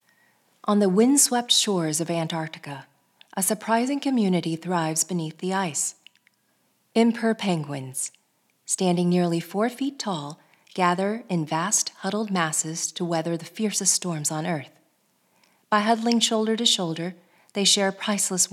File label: Documentary Narration